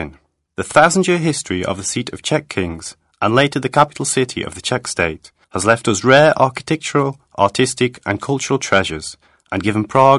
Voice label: commentary en